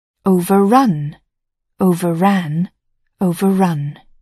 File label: "overrun", English